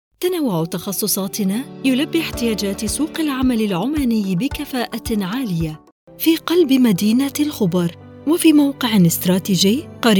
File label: voiceover